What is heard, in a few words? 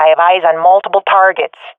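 sees multiple enemies, swat female; vo; Counter Strike Model Pack; CS:GO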